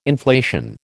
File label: "inflation", American